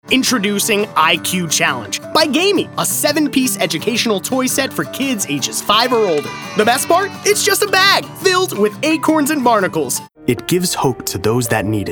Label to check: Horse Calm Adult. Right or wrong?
Wrong